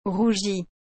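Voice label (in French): "rougie", French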